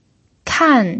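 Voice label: "kan" fourth tone, pinyin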